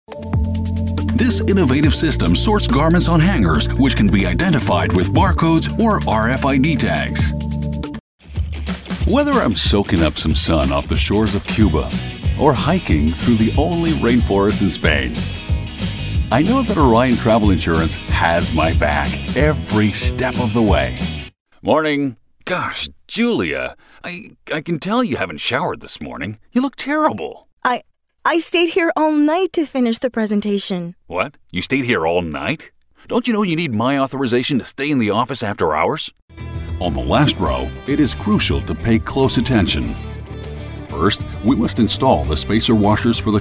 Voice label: english canadian corporate videos